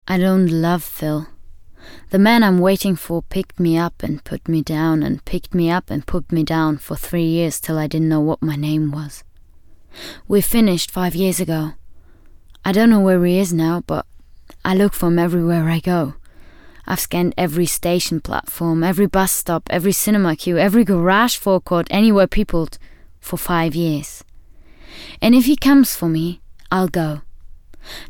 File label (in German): englisch